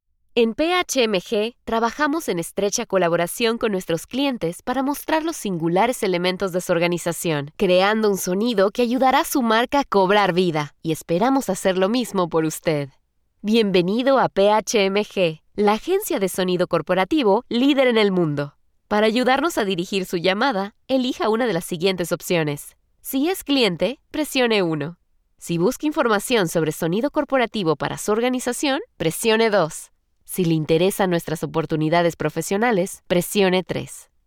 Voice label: spanish latin american ivr